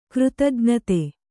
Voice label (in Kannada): "ಕೃತಜ್ಞತೆ", Kannada